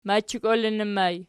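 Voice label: "machikolinnib", ergative, Archi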